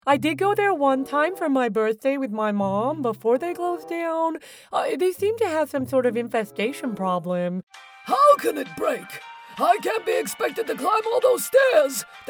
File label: US Animation